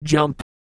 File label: jump, Microsoft Mike